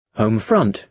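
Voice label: "home front", British English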